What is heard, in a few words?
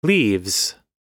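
"leaves", US English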